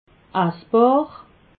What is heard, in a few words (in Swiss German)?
"assbar", alsace